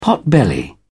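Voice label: "potbelly", British English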